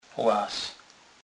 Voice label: "laz", kurpiow

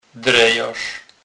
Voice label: "drejarz", kurpiow

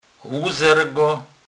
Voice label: "luzrzec", kurpiow

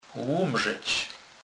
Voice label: "lumrzec", kurpiow

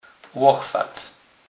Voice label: "lochwat", kurpiow

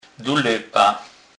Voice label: "dulepa", kurpiow

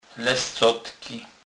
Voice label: "lescotky", kurpiow

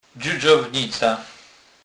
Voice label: "dzudzownica", kurpiow

